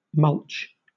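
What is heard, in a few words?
"mulch", British English; pronunciations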